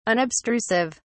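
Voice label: "unobstrusive", British English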